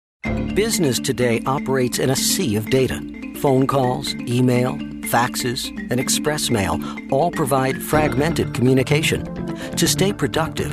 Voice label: Narration